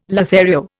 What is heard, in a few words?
"Lothario", Indian